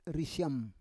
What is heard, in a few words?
"risyam", Roon